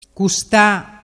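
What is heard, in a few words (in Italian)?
"custa", dialect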